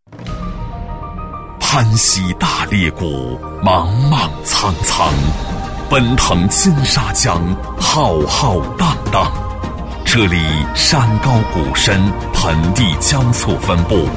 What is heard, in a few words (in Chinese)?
男, 宣传片配音, 大气磅礴稳重; 苴却砚雕刻技艺; 高端大气 大气浑厚